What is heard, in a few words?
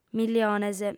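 "miliones" Sardinian, female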